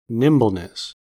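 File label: "nimbleness", US English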